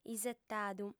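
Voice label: "isetadu", Sardinian, female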